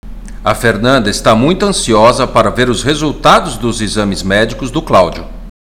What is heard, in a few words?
Portuguese Listening; Portuguese Test